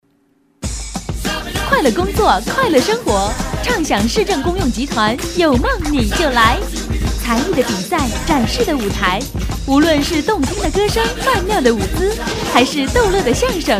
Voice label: girl, Chinese, xuanchuan; youmengnijiulai